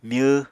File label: "myw", Apatani